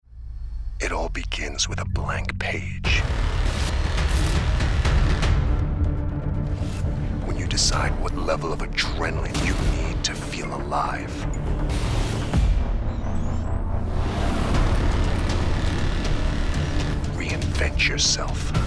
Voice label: male american english epic commercial; ideasonora